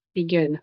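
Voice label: Voice Acting es, female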